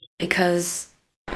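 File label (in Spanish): Voice Acting, Spanish, female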